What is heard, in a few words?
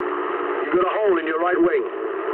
eng right wing mood low, speech eng; War Thunder